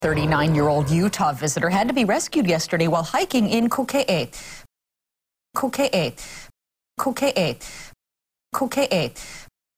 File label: kokee, pronunciation hawaii